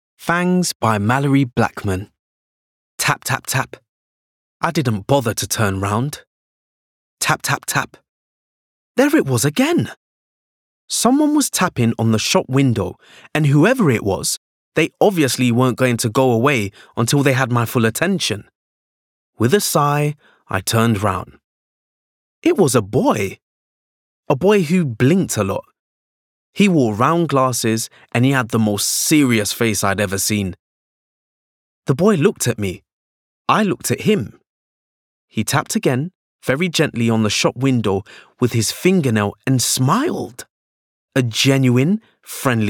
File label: AUDIOBOOK; FANGS BY MALORIE BLACKMAN